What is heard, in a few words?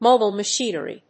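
"mobile machinery", English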